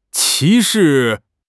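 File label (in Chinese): "歧视", Chinese; HSK6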